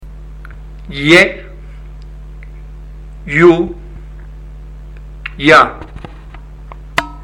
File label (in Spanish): YAUN CON VOCALES, ARABE; ARABE A1; ALFABETO; LETRAS CON VOCALES